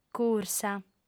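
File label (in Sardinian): "cursa", female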